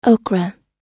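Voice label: "okra" US English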